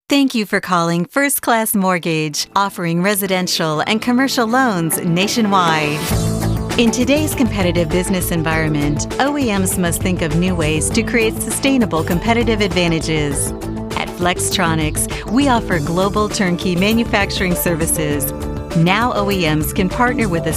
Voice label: phone, voice